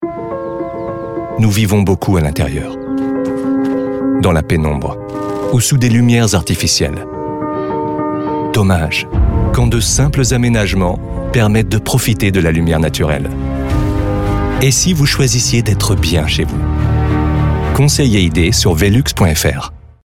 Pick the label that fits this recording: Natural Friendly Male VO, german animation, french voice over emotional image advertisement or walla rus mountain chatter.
french voice over emotional image advertisement